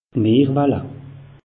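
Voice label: "meerwalle", Alsatian, Haut Rhin; meteo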